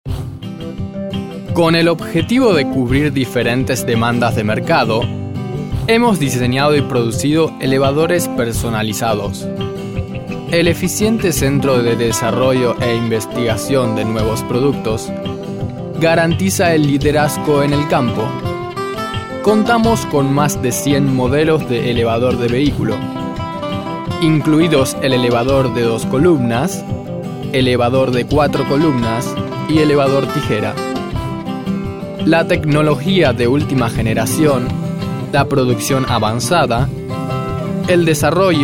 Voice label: spanish boy